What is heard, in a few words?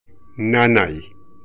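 pain, Quichua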